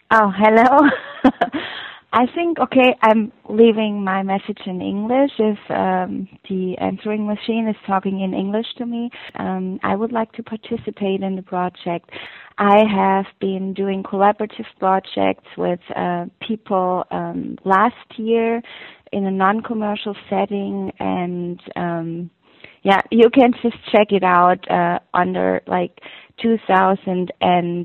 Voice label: Voicemail